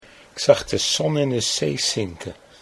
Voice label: ik sagde son, Dutch